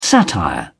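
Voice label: "satire", British English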